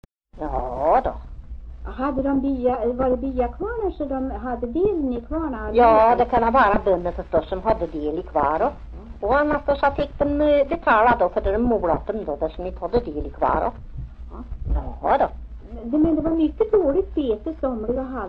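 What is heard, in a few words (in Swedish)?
Järvsö Hälsingland, dialekter, Svenska sagor; Folkminnen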